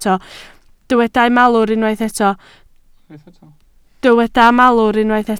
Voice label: "malwr", Welsh

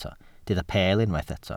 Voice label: "peel", Welsh

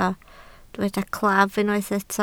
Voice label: "claf", Welsh

